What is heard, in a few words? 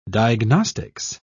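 "diagnostics", British English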